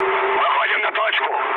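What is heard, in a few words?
Russian near waypoint mood high, speech; War Thunder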